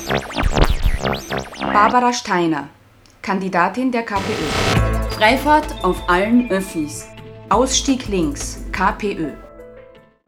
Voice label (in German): Radiospots; Freifahrt